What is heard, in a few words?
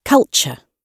"culture", gb